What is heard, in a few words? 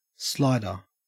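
"slider", Australian English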